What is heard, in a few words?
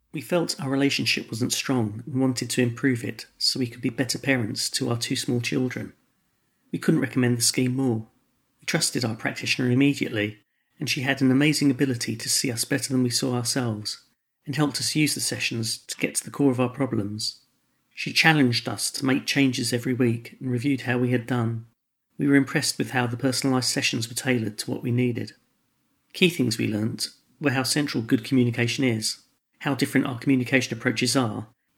English Quote